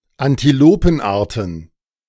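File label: "Antilopenarten", German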